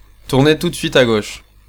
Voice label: Sharp Left, French, male